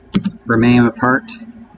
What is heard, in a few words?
not wake word, en; heysavant; SavantRhymes